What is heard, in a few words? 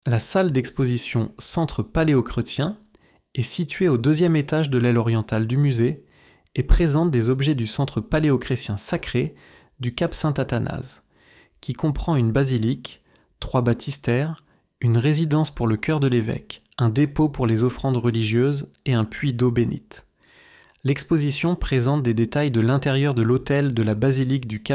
Museum French, audio guide; audioguide du musee